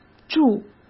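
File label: "筑", Mandarin Chinese; chinese flashcards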